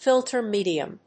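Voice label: "filter medium", English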